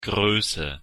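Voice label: "große", German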